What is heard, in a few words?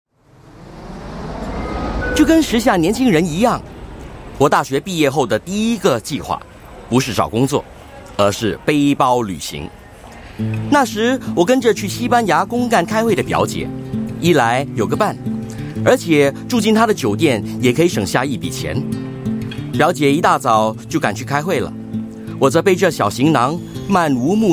Mandarin